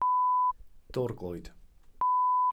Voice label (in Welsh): "dorglwyd", glossary